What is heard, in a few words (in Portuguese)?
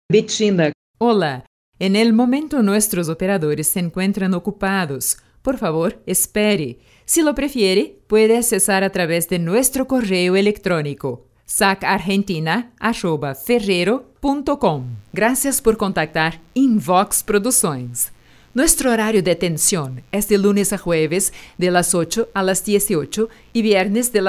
Espanhol